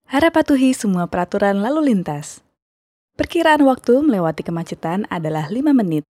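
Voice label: Indonesian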